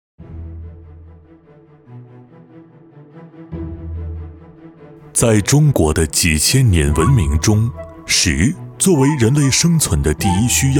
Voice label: 叙述; 舌尖; 闪记胡辣汤

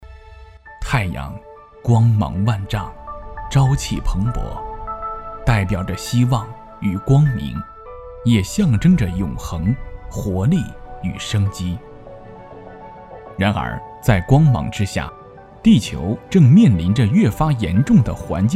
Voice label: 讲述企业宣传

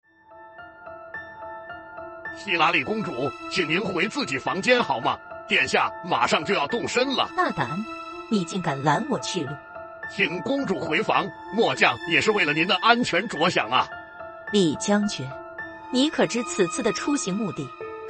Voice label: 有声 漫画 配音; 有声漫画配音案例; 有声书; 多配; 角色扮演

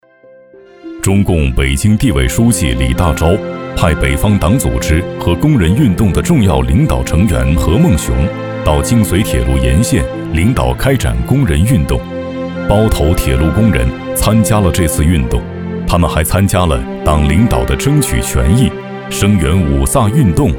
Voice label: 纪录片; 发现包头

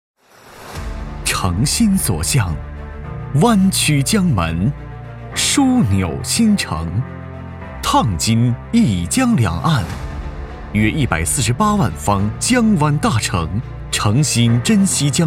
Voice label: 地产广告; 保利西海岸

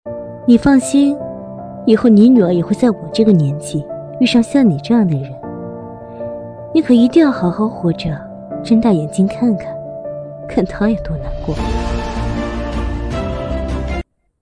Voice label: 御姐

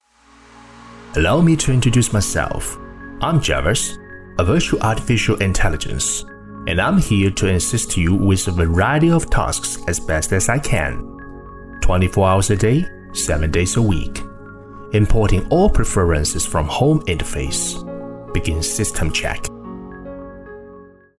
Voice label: 英文人工智能

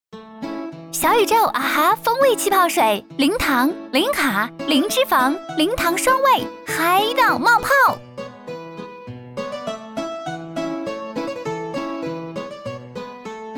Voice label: 轻松活泼气泡水广告宣传

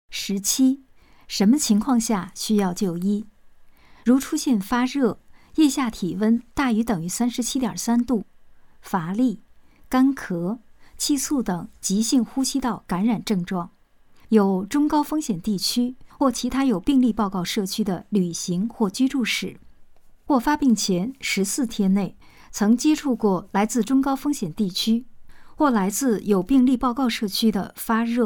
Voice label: 什么情况下需要就医 科普类 讲述

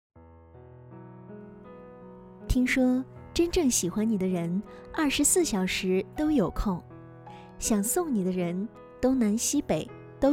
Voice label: 广告抒情类广告

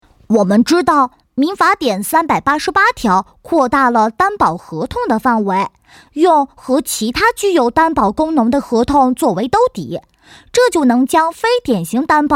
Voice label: 角色类男童

